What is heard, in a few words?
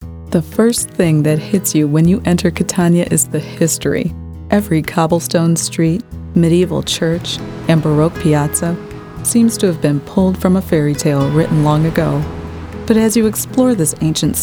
Narration Travelogue Trustworthy